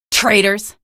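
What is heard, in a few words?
citizen alert soldier, female; vo; npc; source engine; episodic; episode 1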